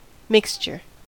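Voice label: "mixture", US English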